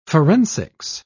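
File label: "forensics", British English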